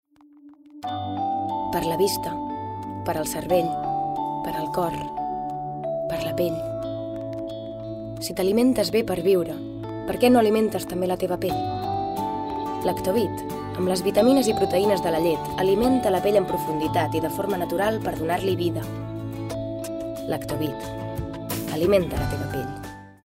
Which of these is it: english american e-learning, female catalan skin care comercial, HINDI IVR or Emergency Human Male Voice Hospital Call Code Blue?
female catalan skin care comercial